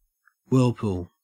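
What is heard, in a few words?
"whirlpool" English, Australian; pronunciations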